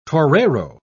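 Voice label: "torero", British English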